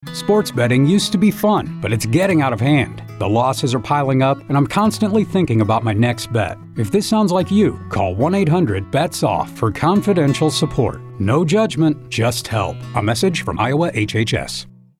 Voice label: Male Over 40; 15-sec

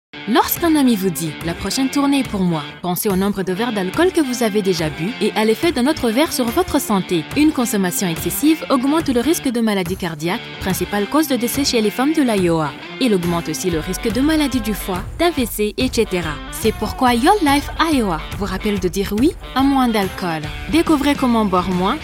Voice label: Say Yes Drinking Less FEMALE, Radio Spot French

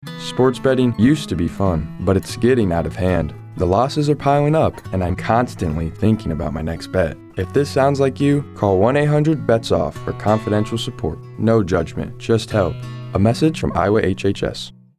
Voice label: Male; 15-sec; under25

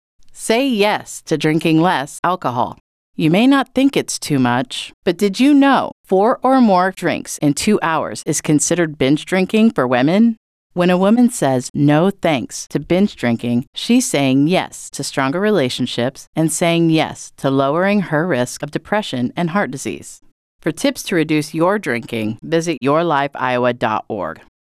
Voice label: :30 Radio Spot Female; Female-Version 2; This prevention campaign educates Iowans, ages 45 and over, about excessive — or heavy and binge — drinki; Say Yes to Drinking Less; Your Life Iowa

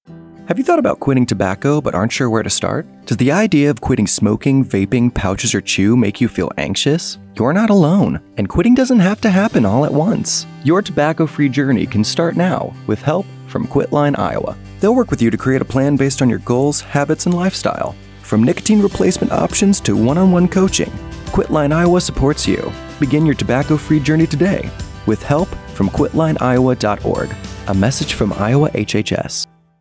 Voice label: Male; Quitting Tobacco; 20s; IowaHHS

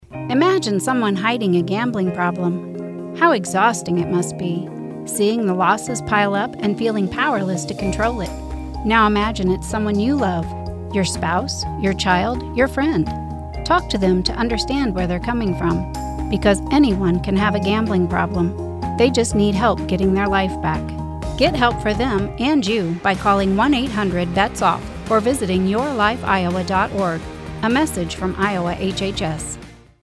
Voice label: rural female, Radio Spot; IA Dept of Health

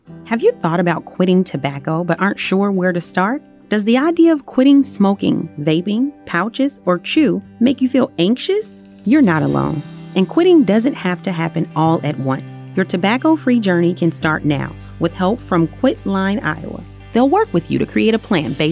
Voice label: Female 30s; Quitting Tobacco; IowaHHS

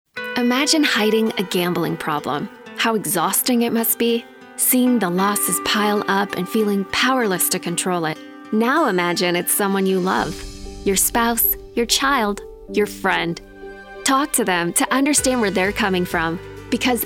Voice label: metro female; Metro Female Spot; IA Dept of Health

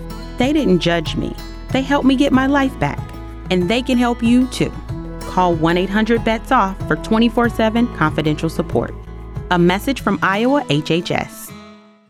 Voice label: female Over 40; 30-sec

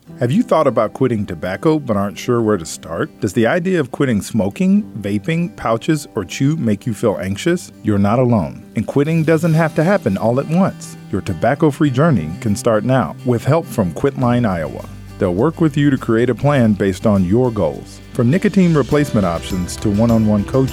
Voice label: Male 40s; Quitting Tobacco; IowaHHS

